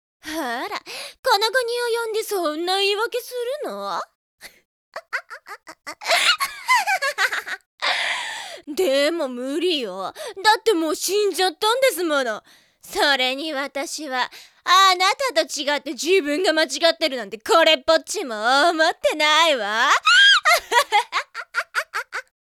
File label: girl